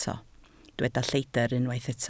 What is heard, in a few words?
"lleidr", Welsh